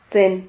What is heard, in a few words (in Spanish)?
"zen", Silabas